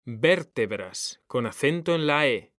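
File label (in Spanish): "VÉRTEBRAS", Spanish, male; mekanta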